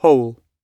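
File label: "whole", British English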